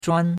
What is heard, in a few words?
"zhuan1", chinois; Cours-de-Chinois